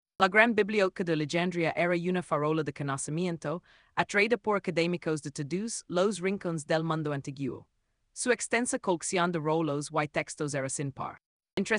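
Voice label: voiceover, Spanish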